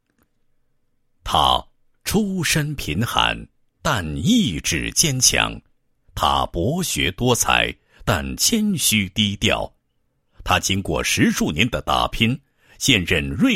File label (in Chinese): 男, 人物; 宣传片文案